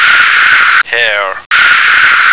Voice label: "hare", American

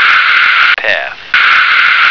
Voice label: "path", American

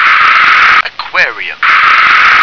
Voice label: "aquarium", English

